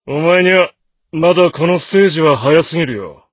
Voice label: voice, dubbing